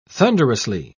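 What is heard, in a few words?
"thunderously", British English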